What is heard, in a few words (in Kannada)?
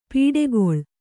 "ಪಡುಕುಳಿ", Kannada